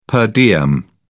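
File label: "per diem", British English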